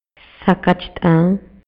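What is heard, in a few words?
Maya, male; RELACIONES POLITICAS Y SOCIALES; INALI; MayaYuc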